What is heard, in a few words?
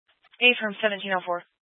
Police Dispatch, scanner; Police 1 Dispatch